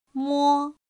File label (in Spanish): "mō", Chino